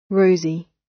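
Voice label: "rosy", British; Design and innovation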